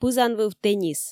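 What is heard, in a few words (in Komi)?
пызанвыв теннис, Komi, female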